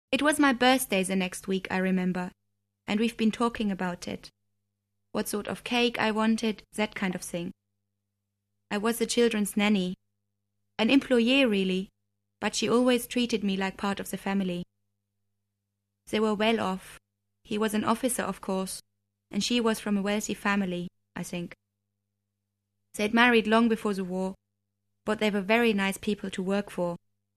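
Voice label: German English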